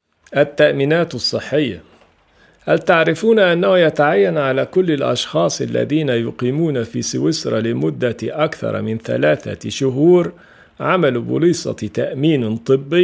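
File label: Arabic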